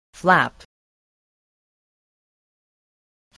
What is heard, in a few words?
"flap", voice